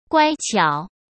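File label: "乖巧", Mandarin Chinese; chinese-flashcards; hsk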